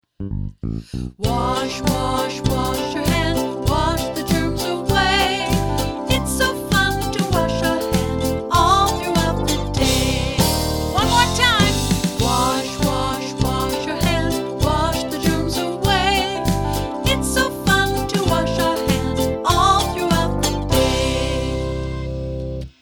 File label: Wash Your Hands English